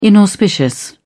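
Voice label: "inauspicious", British English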